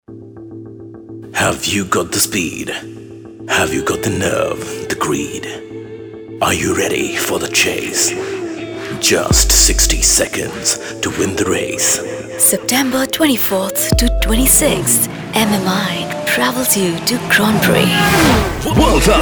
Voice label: Singapore GP radio